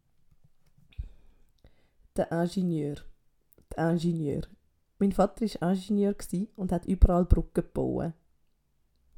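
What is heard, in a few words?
"de Ingenieur", Swiss German vocabulary; Kapitel 2 Zusatzwortschatz 1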